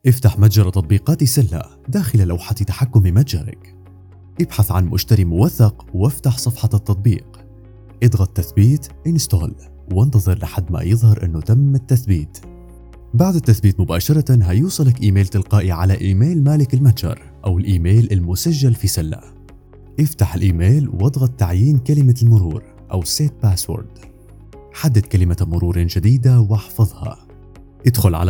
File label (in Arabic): تعليق صوتي